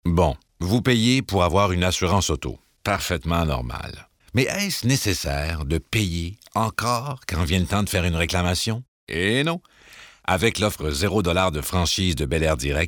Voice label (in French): Publicite; COMÉDIEN VOIX